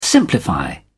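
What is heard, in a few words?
"simplify", British English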